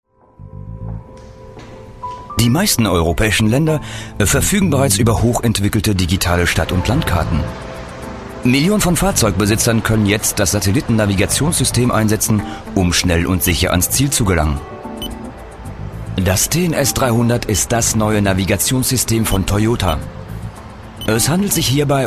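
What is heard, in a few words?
German, male, corporate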